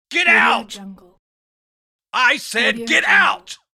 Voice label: male angry get out; applehillstudios

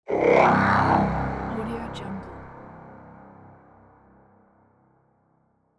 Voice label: robot voice

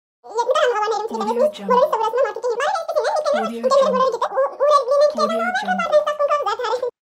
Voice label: cartoon phone babble

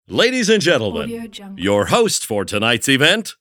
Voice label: announcer your host for tonight's event

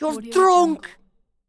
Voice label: male irish you're drunk

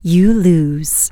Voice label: vocal dialogue you lose female human; rogue

